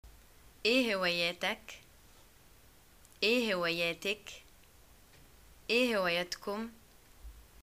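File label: what r ur hobbies, Egyptian arabic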